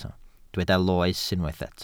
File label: "loes", Welsh